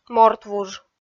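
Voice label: "мортвуж", Komi, female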